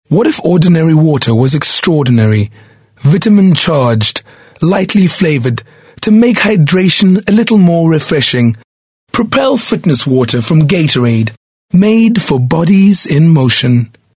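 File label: English Softsell South African Johannesburg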